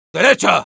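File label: Sniper head right, spanish; "¡Derecha!"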